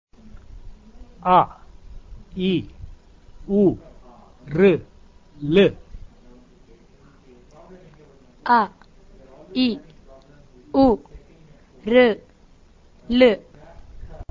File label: Short vowels, Sanskrit; Learn Sanskrit through self-study; Devanagari Script